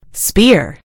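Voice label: "spear", British English; gcompris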